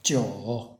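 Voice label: "jiu3", Chinese, male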